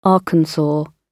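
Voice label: "arkansas", British English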